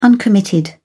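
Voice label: "uncommitted", British English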